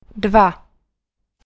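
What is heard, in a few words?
"dva" Czech female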